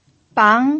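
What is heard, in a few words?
"bang", pinyin, second tone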